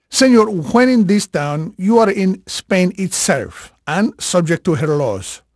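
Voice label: Spanish male, voice; Pirates Of The Caribbean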